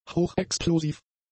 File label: "hochexplosiv", German; adjectives